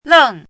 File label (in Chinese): "leng4", 汉字语音; chinese-voice